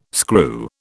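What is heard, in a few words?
"screw", British English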